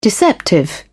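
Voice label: "deceptive", English, British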